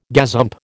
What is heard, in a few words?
"gazump", American